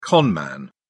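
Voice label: "con man", English, British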